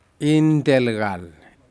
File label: fish whitespotted Surgeonfish northern, Aneityum